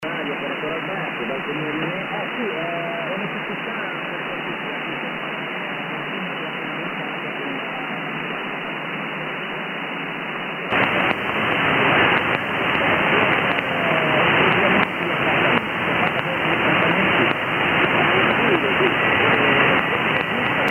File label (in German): Amateurfunk 80m LSB